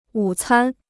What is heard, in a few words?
"午餐", Chinese